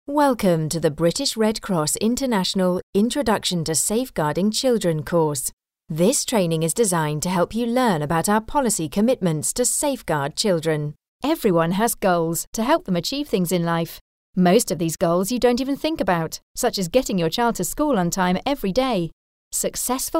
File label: eLearning